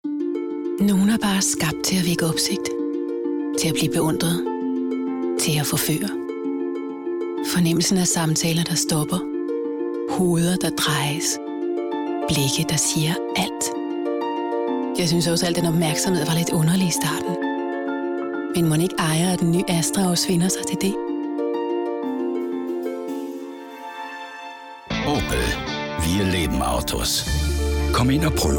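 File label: Danish advert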